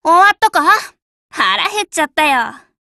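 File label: Japanese, Voice; GF Alarm; Minosu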